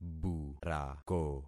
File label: "burako", concat syllables; Affixing experiment